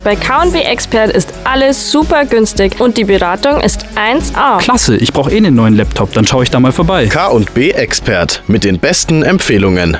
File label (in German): Werbung Reminder